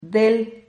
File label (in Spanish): "del", Silabas